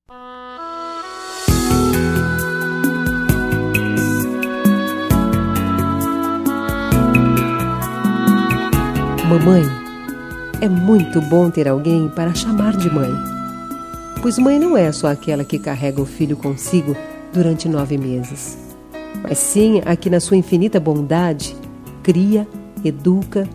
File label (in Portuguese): Dia das Maes, F